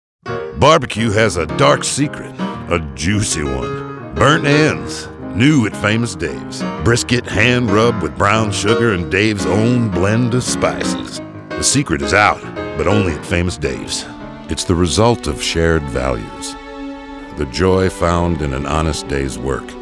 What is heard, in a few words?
Commercial